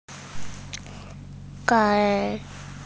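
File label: "gai1", Mandarin Chinese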